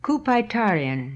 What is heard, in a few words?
"Kupaitarian", pronounciations; Fourth Way; Beelzebub